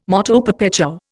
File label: "moto perpetuo", Indian